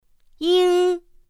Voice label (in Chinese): "ing", pinyin; pinyin2; pinyinji